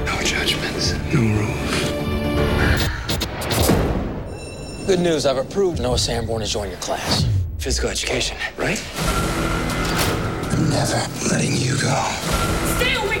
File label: boy next door tv